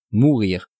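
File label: "mourir", French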